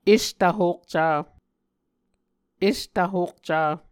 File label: "estvhokca", Muscogee